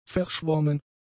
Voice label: "verschwommen", German; adjectives

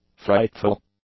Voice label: "frightful", English; adjectives

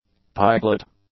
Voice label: "piglet", English; nouns

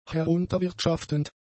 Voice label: "herunterwirtschaftend", German; adjectives

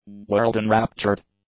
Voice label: "world-enraptured", english; adjectives

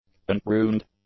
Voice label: "unpruned", english; adjectives

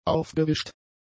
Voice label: "aufgewischt", German; adjectives

